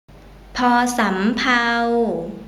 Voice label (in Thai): "ภ สำเภา", Thai